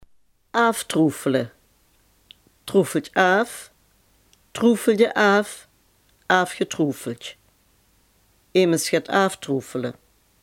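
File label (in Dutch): "aftroggelen" Limburgish dialect word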